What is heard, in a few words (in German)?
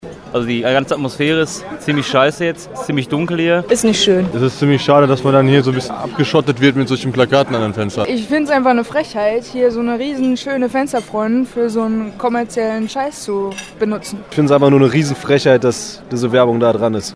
voxpops studenten